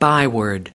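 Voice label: "byword", US English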